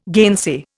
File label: "gainsay", Indian